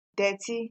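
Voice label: "dirty", Nigerian Pidgin, female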